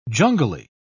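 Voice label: "jungly", British English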